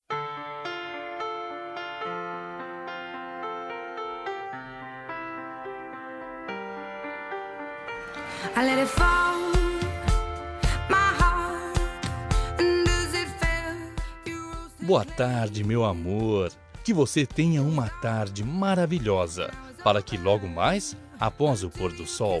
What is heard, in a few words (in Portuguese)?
ROMANTICA, Telemensagens; BOA TARDE; Telemensagens 2017; Românticas